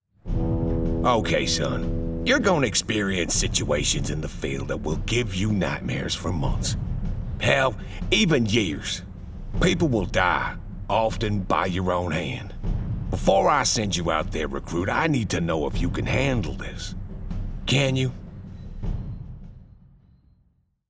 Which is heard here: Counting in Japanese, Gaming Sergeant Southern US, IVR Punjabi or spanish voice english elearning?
Gaming Sergeant Southern US